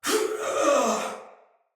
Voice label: Death Soldier Male; Sinecure Soldier Male A